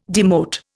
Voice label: "demote", Indian